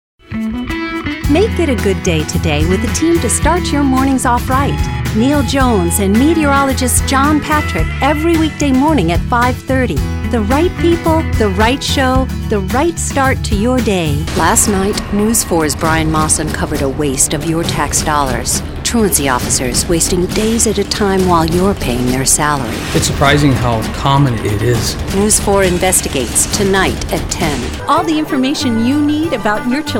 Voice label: Affiliate Promo